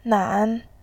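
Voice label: "nan", Mandarin, tone 3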